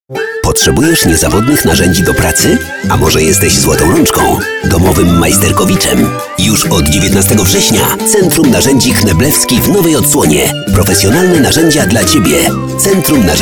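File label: Polish, male, commercial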